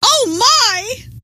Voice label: "oh my", scientist female